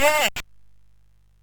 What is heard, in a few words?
MOS6581R2, Electronic Speech Systems; Slapshot